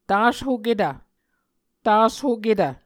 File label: "tashoketv", Muscogee